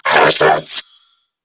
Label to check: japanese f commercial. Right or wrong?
Wrong